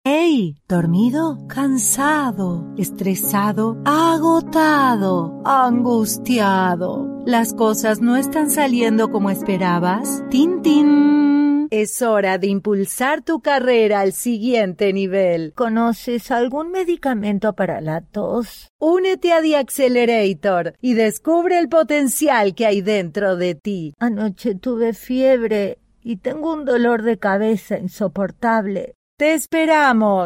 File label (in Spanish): publicidad